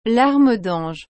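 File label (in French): "larmes d'ange", French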